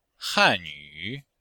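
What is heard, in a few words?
"han4yu3", Chinese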